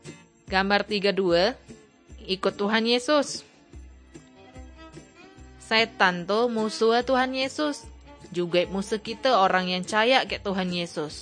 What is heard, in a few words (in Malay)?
kabar baik good news malay bangka